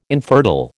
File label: "infertile", American